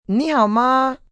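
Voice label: How Are You Mandarin, Greetings, female; VoiceBox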